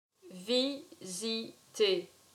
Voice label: "Visiter", french